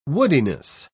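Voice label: "woodiness", British English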